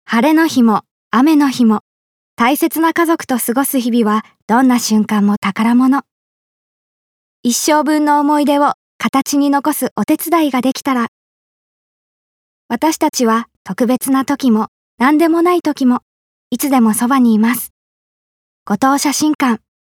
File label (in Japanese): 写真館CM